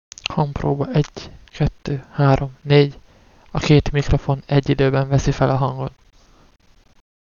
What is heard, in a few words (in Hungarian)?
hyperx, mikrofon; Cherry Xtrfy; NGALE R